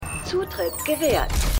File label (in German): Computerstimme; The Flash